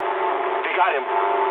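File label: aircraft destroyed mood low, speech English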